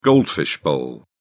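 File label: "goldfish bowl", British English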